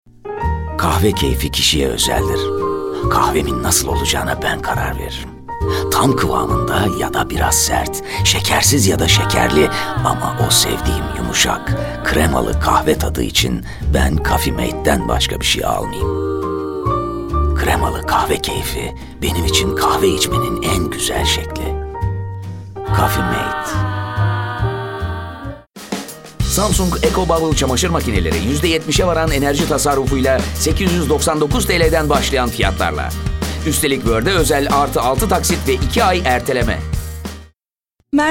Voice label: turkish m commercial